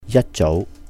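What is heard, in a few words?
"yāt jóu", Cantonese; early in the morning; 一早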